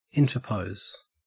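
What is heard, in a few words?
"interpose", Australian English; pronunciations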